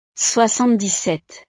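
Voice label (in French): "soixante dix sept", French; 77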